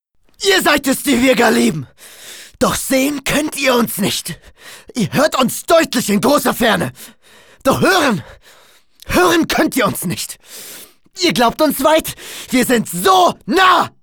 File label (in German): aggressiv